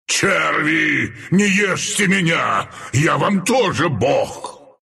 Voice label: death, ru; dota2

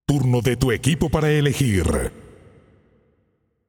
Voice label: Announcer Draft Team Pick; paladins

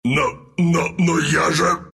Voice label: death, ru; Dota 2; Wraith

